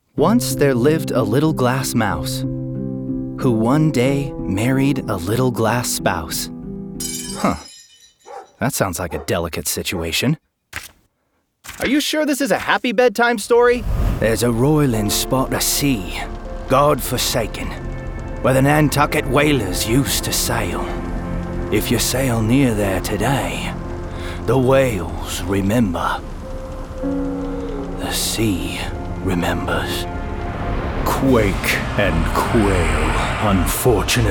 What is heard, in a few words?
Character